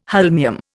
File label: "holmium", Indian